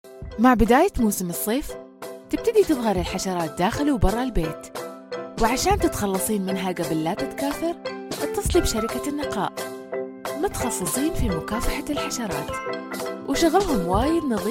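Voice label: Arabic Corporate, voice demos; GoLocalise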